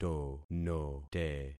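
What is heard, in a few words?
"tonote", concatenated syllables; Affixing experiment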